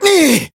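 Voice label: attack, Japanese; jade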